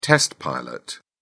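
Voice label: "test pilot", English, British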